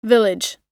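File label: "village", English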